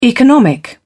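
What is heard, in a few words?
"economic", British English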